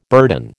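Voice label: "burden", American English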